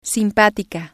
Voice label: "simpatica", spanish

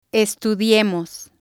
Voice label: "estudiemos", spanish

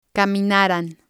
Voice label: "caminaran", spanish

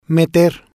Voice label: "Meter", spanish; Food

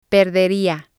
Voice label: "perderia", spanish

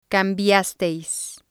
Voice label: "cambiasteis", Spanish

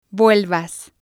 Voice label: "vuelvas", spanish

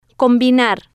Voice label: "Combinar", spanish

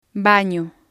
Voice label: "bano", spanish

